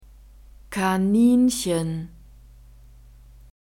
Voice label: "kaninchen", german